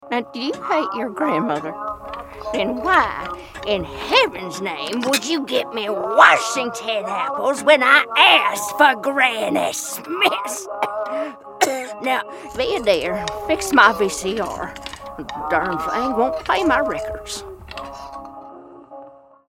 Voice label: Animation GRANDMOTHER